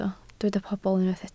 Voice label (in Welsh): "pobl", Welsh